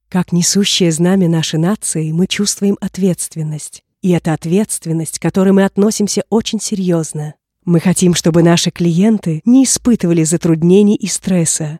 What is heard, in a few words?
Russian Voice Over warm Calm